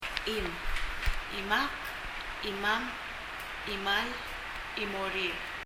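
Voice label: "chim" Palauan, hand; 手; 発音; 身体の各部位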